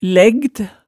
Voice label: "lægd", hemsedalsmaalet tale

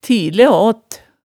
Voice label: "tile aat", hemsedalsmaalet, tale

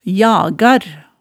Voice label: "jagar", hemsedalsmaalet

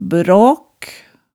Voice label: "braak", hemsedals maalet tale

